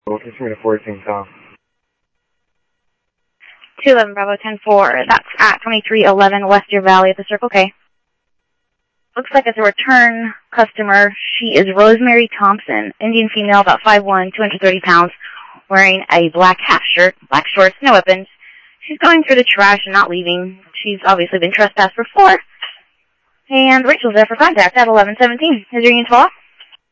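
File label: Police Department, scanner audio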